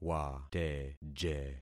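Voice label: "wateje", concatenated syllables; Affixing experiment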